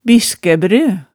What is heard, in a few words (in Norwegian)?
"biskæbrø", hemsedals maalet tale